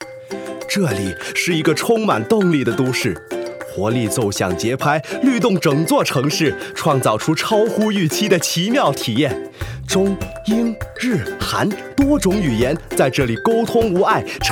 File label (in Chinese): 普通話男聲; Disney